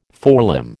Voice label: "forelimb", American

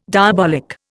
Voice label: "diabolic", Indian

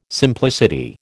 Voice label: "simplicity", American

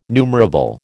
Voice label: "numerable", American

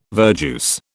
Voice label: "verjuice", British

